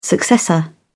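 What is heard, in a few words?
"successor", British English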